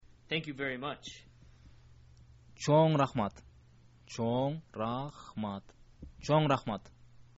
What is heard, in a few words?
thank you very much, kyrgyz